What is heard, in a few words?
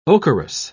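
"ocherous", British English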